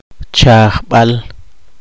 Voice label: poqomchi; cenicero

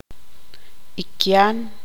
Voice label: tzutujil

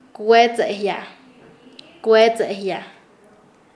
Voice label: "enraizar", Nasa Yuwe

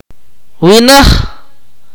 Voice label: "man adult male", Akateko

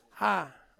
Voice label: "a", Ansus; day

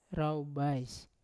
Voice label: "raubais" to kill, Yawa

